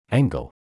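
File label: "angle", English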